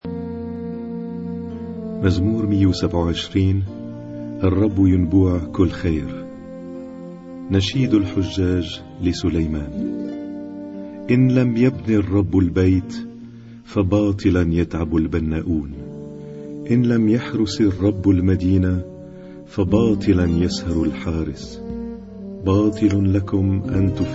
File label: Arabic Psalm Zaboor